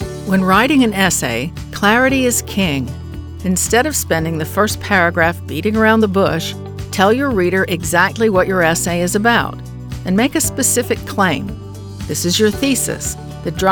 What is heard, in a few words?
commercial spokesperson